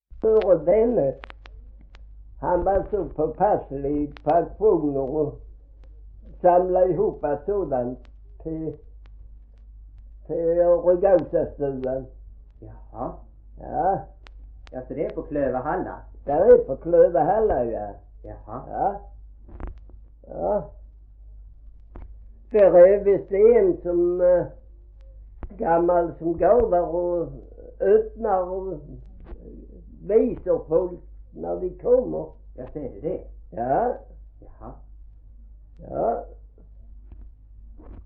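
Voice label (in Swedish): Gråmanstorp Skåne, dialekter svenska sagor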